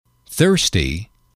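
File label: "thirsty", English, male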